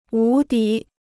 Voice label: "无敌", Chinese